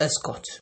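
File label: "escort", Nigerian Pidgin, male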